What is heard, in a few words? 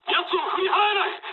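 aircraft damaged mood low, speech japanese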